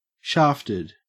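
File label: "shafted", Australian English; pronunciations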